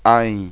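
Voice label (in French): "ain", French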